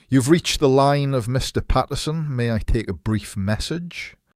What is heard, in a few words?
formal